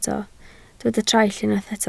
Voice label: "traill", Welsh